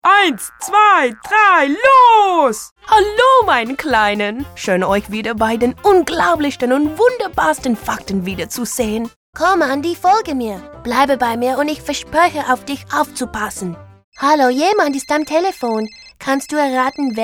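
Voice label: German Toys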